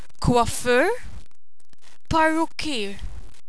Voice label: coiffeur, Maltesisch; berufe

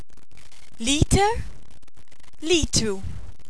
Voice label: liter, Maltesisch; masse

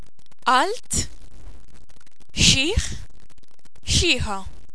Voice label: "alt", maltesisch

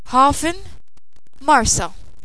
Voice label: hafen, Maltesisch; ortsbezeichnungen

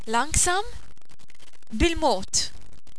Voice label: langsam, Maltesisch

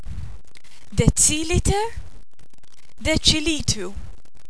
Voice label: deziliter, Maltesisch; masse

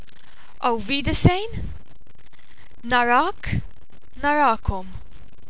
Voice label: aufwiedersehen, Maltesisch; gruss

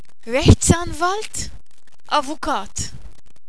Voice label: rechtsanwalt, Maltesisch; berufe

